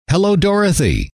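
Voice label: "hello", male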